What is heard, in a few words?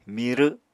"mirw", Apatani